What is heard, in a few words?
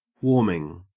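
"warming", Australian English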